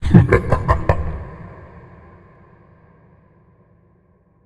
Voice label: Drakenlord Announce